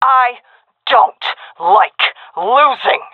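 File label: round losing, female; vo; Counter Strike Model Pack; CS:GO; seal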